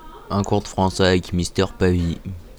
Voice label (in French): ORDRE FRANCAIS